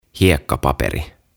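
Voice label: "Hiekkapaperi", "Sandpaper", Finnish, English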